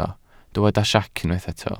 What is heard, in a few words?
"siec", Welsh